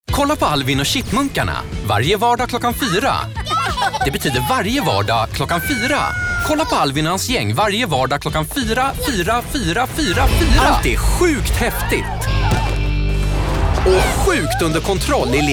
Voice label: swedish tv shows